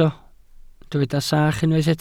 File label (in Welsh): "sach", Welsh